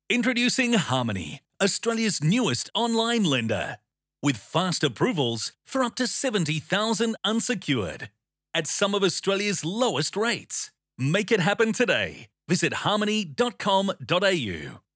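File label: Australian Male Voice Talent Phone Video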